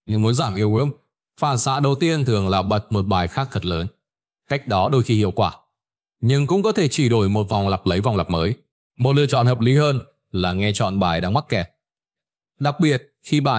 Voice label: narration; Vi Sao Mot Bai Hat Co The Mac Ket Trong Dau Ban Ca Ngay; StickerMan